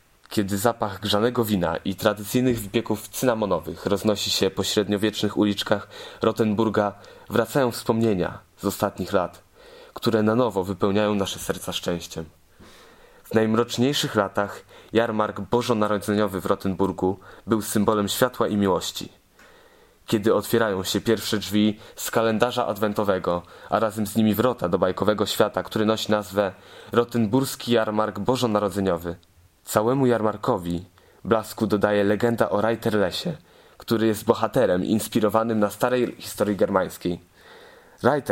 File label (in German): Weihnachtsmarkt polnisch